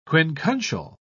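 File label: "quincuncial", British English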